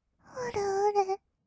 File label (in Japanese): 「うるうる」, kawaii voice; 1200 kawaii voice!!; 色々なワンショット